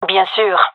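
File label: agree, gendarmerie female; vo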